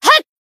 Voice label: Battle Shout; Neru